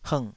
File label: "hngh", Taiwanese